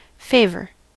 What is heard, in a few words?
"favor", us